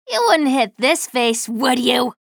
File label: chatter neutral; slash